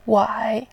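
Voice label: "wai", Mandarin Chinese, tone 3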